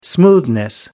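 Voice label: "smoothness", British English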